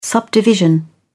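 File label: "subdivision", British English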